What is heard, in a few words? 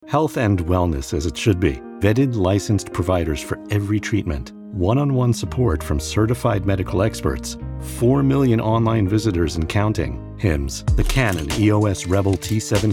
Commercial Long